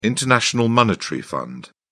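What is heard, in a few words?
"international monetary fund", British English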